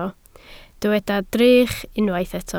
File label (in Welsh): "drych", Welsh